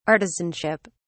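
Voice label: "artisanship", English, British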